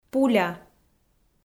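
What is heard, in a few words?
"пуля", Komi, female; kpv audio dict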